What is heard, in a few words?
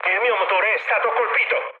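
Italian damaged engine mood med, speech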